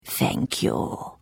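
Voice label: Follow, Khajiit female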